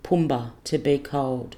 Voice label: "pumba" to be cold